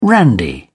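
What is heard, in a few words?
"randy", British English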